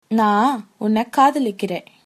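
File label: I love you, Tamil, female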